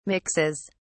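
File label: "mixes", British English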